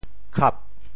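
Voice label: "khap4", Teochew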